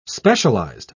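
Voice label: "specialised", British English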